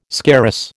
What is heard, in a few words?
"scarus", American